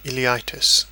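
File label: "ileitis", British English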